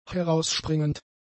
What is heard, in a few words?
"herausspringend", German; adjectives